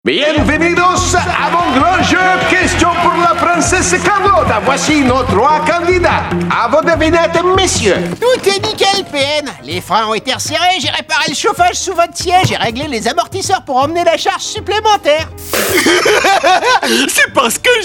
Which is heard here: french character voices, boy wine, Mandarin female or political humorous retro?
french character voices